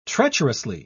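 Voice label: "treacherously", British English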